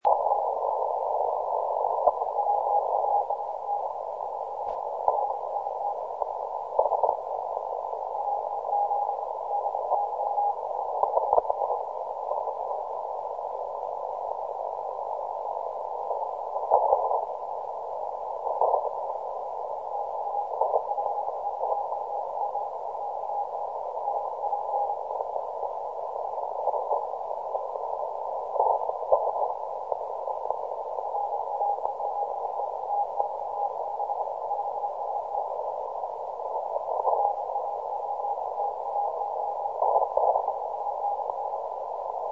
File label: 40M CW